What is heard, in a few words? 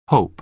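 "hope", American English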